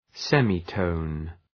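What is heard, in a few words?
"semitone" English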